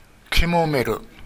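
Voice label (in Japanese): "kimomeru", 南山形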